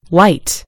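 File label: "white", British English; gcompris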